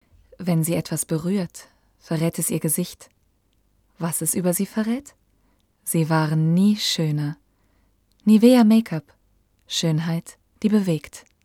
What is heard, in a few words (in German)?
werbung; nivea